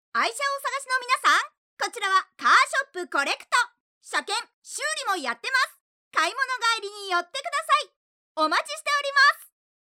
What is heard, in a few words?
female